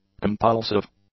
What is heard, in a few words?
"compulsive", English; adjectives